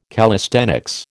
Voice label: "calisthenics", American